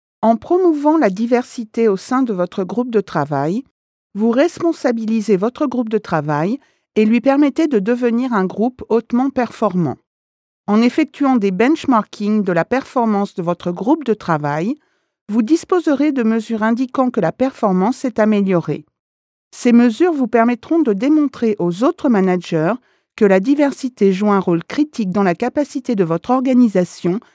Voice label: French, Voice Samples, Foreign Language